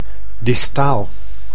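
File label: "diataal", Dutch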